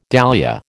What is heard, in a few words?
"dahlia", American